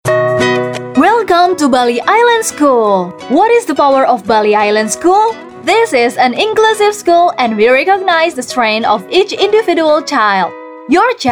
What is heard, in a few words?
English casual